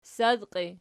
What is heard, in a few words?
"ssadqii", Archi